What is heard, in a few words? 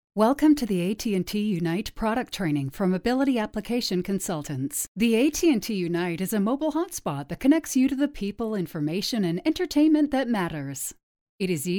english female voices canadian accent